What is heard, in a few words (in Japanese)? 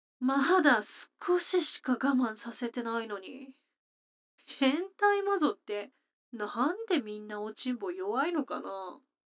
女の子 台詞, ASMR